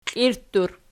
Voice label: "klirttur", Archi

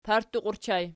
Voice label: "partuqi", Archi

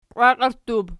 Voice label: "qiwakierttub", Archi

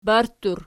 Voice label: "barttur", Archi

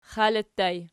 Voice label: xali ergpl, archi

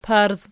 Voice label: "parz", Archi

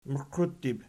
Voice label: "muqiuttib", Archi

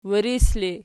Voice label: wiris erg, archi

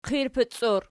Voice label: "qirpic", Archi

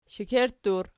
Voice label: "shekerttur", Archi

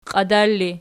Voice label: "qiadar", Archi

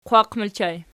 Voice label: "qwaq", Archi

